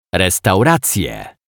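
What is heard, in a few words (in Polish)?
"restauracje", Polish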